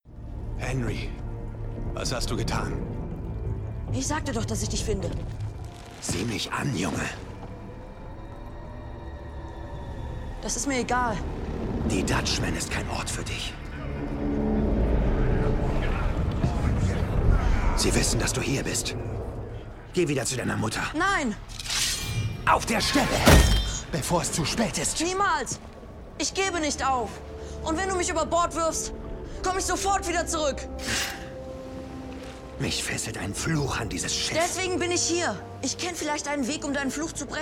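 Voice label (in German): Synchron, Männer; Fluch der Karibik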